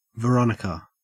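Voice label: "veronica", Australian English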